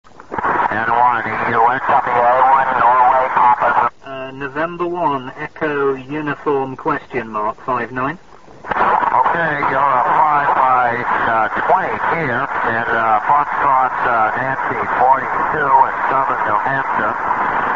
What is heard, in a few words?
qso